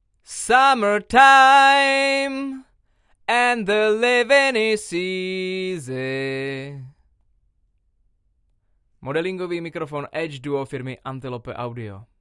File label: Vocal - R121 BiDirect - Edge modelled - Low; bidirectional (osmička); edge duo srovnavaci test